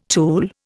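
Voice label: "tooling", Indian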